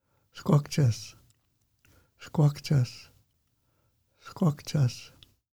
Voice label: Red Huckleberry Fruit Musqueam name pronunciation